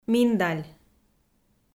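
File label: "миндаль" Komi, female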